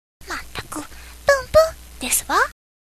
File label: girl